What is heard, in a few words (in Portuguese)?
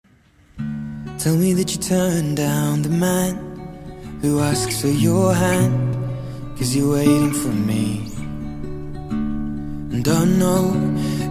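ANIV AMIGO male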